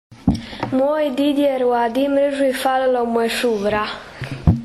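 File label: "suvar", Croatian dialect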